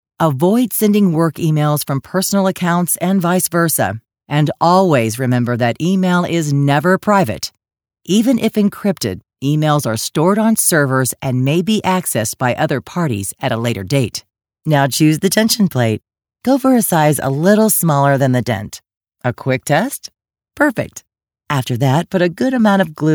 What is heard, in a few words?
Industrial Narration E-Learning